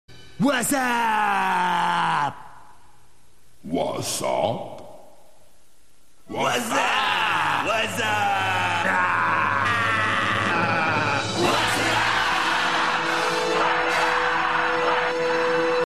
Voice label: Wazzup, Ringtones